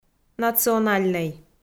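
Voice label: "национальнӧй", Komi-Zyrian, female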